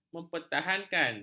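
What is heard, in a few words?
"mempertahankan", Malay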